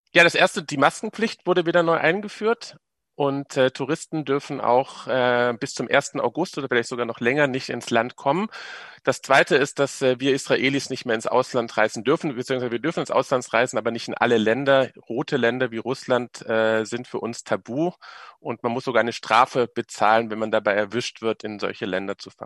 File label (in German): radio; Israel Oeffnung fuer Touristen verschoben; radio SAW